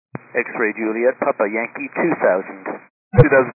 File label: 122 725MHz, scanner radio